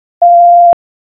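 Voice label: Police Southeast District Dispatch; Raleigh; p25; wake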